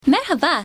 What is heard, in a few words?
turkish merhaba hello